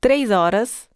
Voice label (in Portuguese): portuguese 1 hour; samsung YP-U5; Audio User Interface